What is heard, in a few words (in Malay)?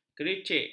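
"kericik", Malay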